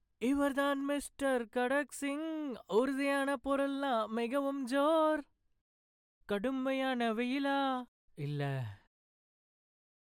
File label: Tamil M Sample; voice-over